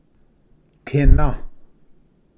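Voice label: "tina", Cherokee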